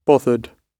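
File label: "bothered", British English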